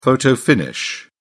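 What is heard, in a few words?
"photo-finish", British English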